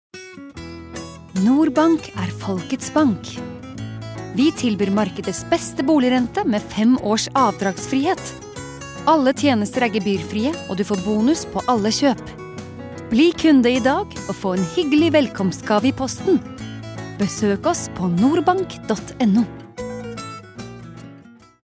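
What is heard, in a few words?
Norwegian commercial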